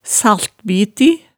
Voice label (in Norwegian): "saltbiti", hemsedalsmaalet